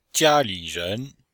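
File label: "jia1li3ren2", Chinese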